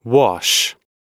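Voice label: "wash", English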